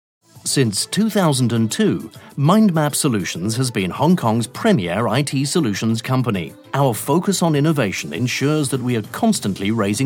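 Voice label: UK Narration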